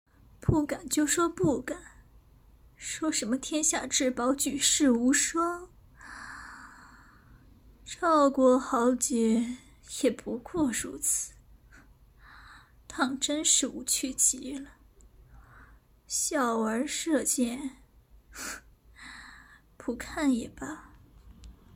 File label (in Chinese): 御姐